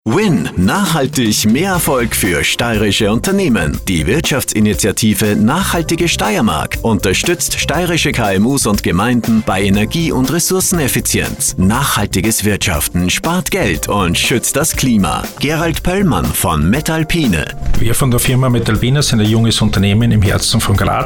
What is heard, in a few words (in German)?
spot; win metalpine